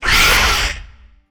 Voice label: BOSS Hurt